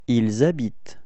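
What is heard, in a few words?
"habiter ils", French; frans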